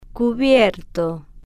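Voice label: "cubierto" Spanish, female, slow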